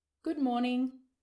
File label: Good Morning Australian Female Voice